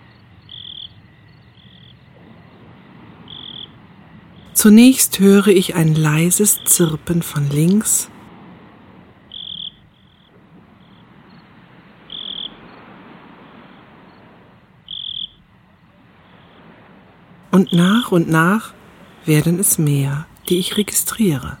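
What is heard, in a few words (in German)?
grillen einschlafen weiblich; Orte der Entspannung; Phantasiereisen; Tiefenentspannung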